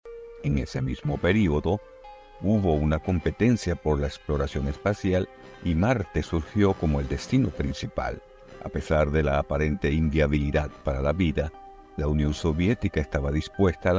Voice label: 西班牙男声

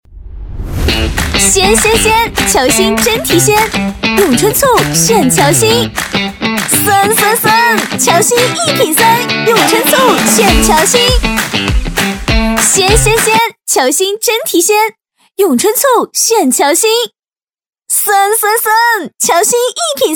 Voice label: 女, TVC, 欢乐快节奏

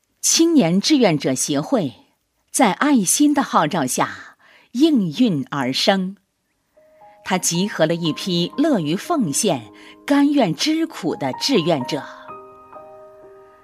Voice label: 女, 公益广告, 义工, 深情; 成熟稳重; 深情感人

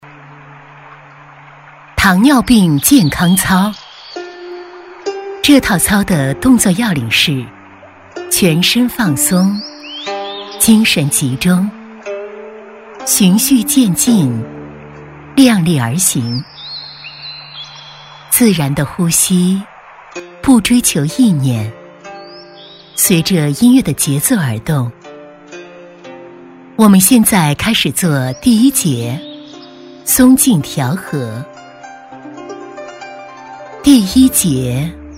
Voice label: 女 糖尿病健康操 非常缓慢 舒缓; 甜美知性; 舒缓抒情

